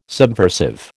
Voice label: "subversive", American

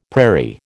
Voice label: "prairie", American

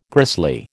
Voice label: "grisly", American

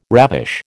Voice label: "ravish", American

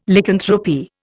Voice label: "Lycanthropy", Indian

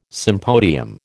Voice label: "sympodium", American

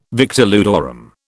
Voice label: "victor ludorum", British

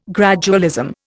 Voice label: "gradualism", Indian

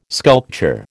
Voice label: "sculpture", American

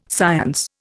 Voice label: "science", Indian

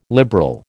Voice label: "liberal", American

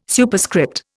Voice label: "Superscript", Indian

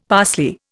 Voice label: "parsley", Indian; Dictionary

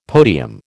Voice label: "podium", American

